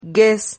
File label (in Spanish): "guez", Silabas